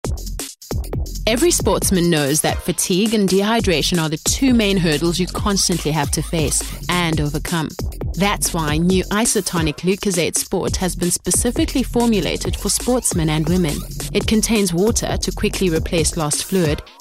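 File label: female, South African English, commercial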